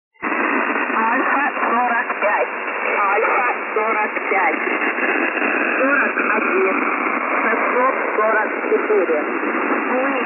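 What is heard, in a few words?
message, Russian military voice stations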